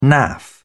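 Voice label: "naff", British English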